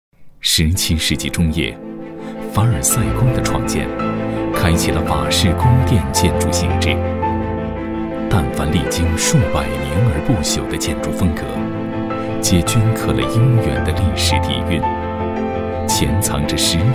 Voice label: 男,地产宣传片,典雅; 凡尔赛庄园

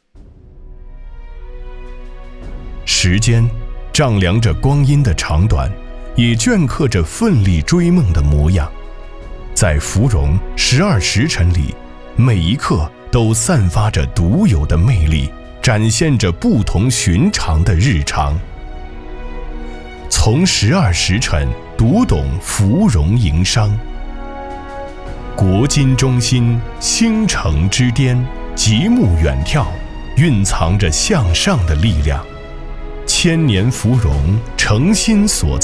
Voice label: 男 走心 大气沉稳; 芙蓉十二时辰

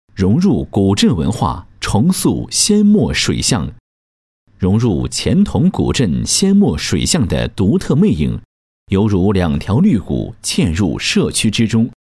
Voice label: 男-工程汇报; 宁波宁海双水社区实施方案设计成品

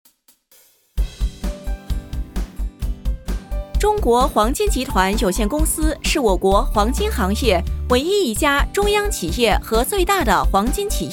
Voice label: 促销; 中国黄金

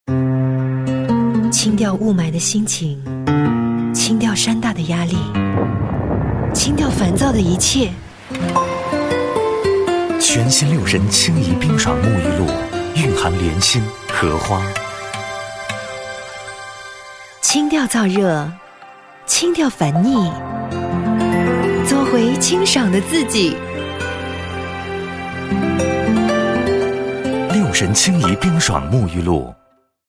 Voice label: 男 广告, 国语男声配音; 六神冰爽沐浴乳

